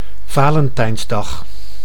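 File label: "Valentijnsdag", Dutch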